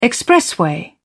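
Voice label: "expressway", British English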